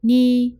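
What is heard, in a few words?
"に", Japanese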